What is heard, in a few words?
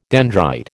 "dendrite", American